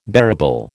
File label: "bearable", American